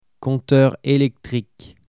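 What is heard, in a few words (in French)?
"compteur electrique", French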